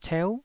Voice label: "ceu9", taiwanese Syllables